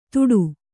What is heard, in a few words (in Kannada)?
"ದೂಡು", Kannada